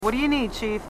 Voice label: marines female

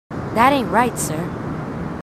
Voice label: marines female, marine tough

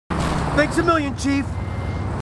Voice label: marines, marine timid; h2dialogue

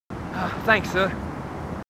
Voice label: marines, aussie; h2dialogue

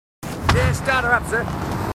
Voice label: marines, aussie; h2dialogue

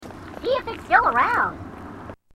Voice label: grunt; h2dialogue; grunt crazy

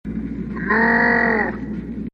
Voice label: taunt nooooo

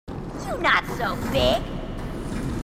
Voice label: grunt; h2dialogue; grunt crazy

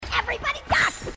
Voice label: everybody duck, grunts

